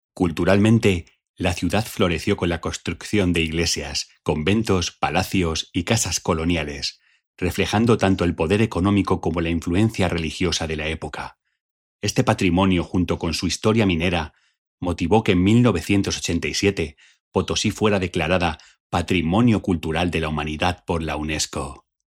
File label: locutor spanish voiceover